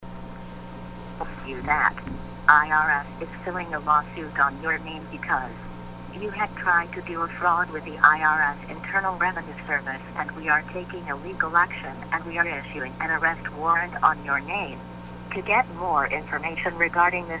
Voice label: Fake IRS Voicemail, IRS scam phone call